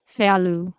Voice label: "fealu", Anglo Saxon